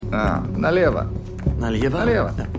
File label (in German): Wachmann, Stimmproben